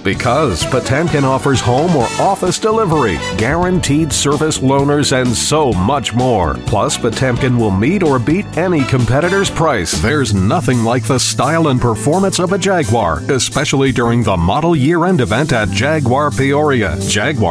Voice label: Auto Dealer Voiceover